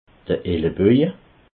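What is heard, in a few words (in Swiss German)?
"elleboje", Alsatian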